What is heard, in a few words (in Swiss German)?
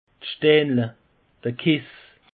"steinle", alsace; peche